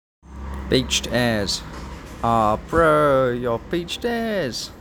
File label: "Beached As", New Zealand; common New Zealand slang words and phrases